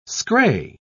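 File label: "scray", British English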